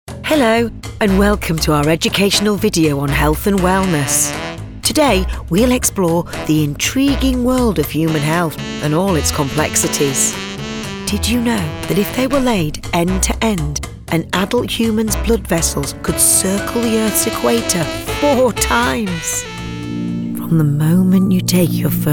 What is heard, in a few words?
Explainer